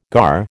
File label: "gar", American